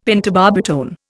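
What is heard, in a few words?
"pentobarbitone", Indian English; Dictionary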